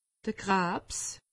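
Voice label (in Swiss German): "krabs", Alsatian; medecine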